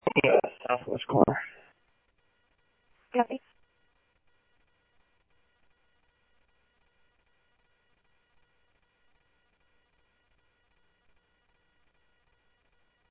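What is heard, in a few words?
Mesa Police Department, scanner audio trunk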